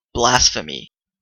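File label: "blasphemy", English, Canadian accent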